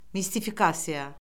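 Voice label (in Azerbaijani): "mistifikasiya", Azerbaijani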